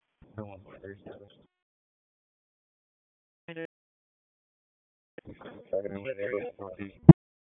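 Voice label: Police Dispatch; Raleigh Police Southwest District Disp; wake; p25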